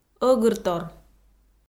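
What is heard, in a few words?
"ӧгыртор", Komi, female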